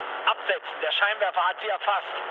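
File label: German searchlite mood low, speech; War Thunder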